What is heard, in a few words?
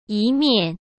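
"一面", Mandarin Chinese; hsk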